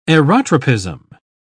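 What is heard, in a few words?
"aerotropism", English, uk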